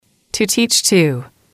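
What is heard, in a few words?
"to teach to", English, female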